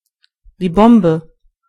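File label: "bomb", German; gcompris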